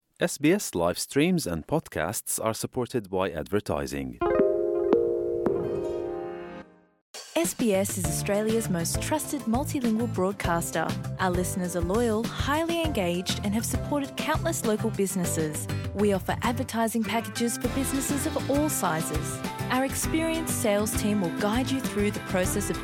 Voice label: gujarati news bulletin; sbs

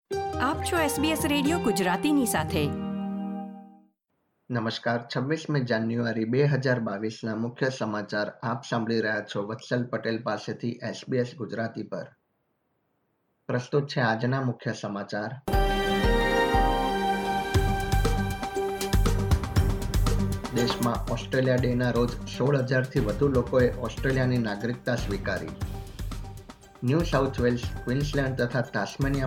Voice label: gujarati news bulletin; sbs

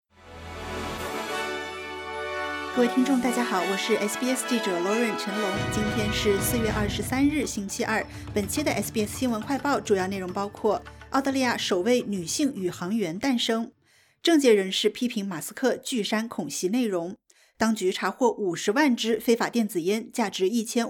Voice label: mandarin news flash; sbs

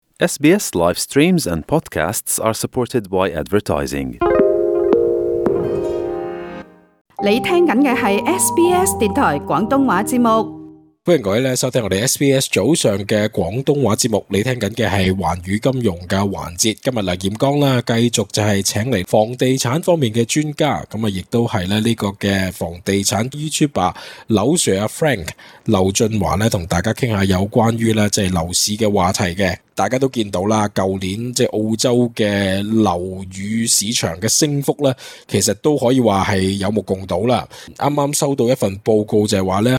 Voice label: cantonese

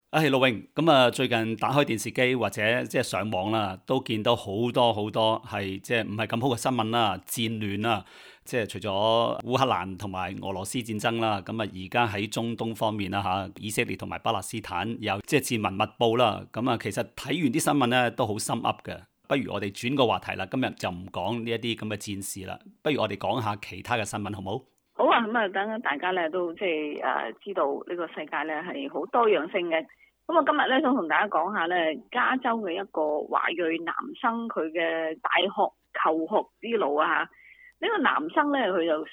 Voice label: cantonese; sbs

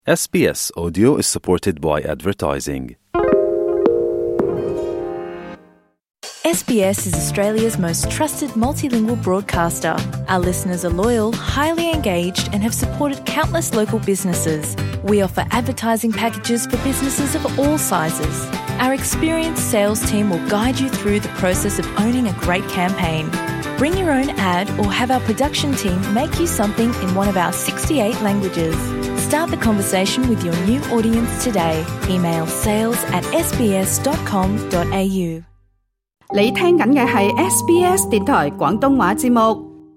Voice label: cantonese; sbs

